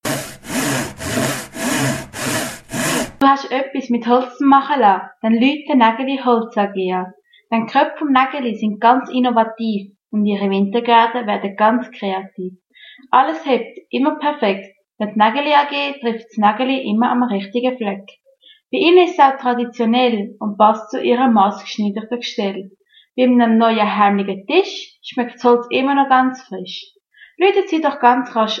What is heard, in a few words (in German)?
Zimmerei Werbung; Naegeli-Zimmerei; Marthalen